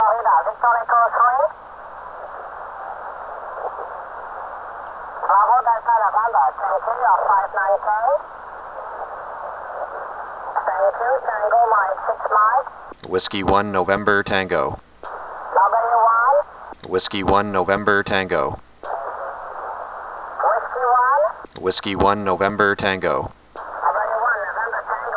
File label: france 20m ssb; TM6M; 2009 arrl ssb